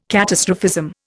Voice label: "catastrophism", Indian

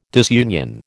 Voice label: "disunion", American

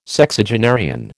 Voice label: "Sexagenarian", American

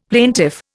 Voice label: "plaintiff", Indian; Dictionary

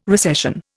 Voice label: "recession", Indian

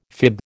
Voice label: "fib", American

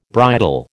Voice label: "bridal", American

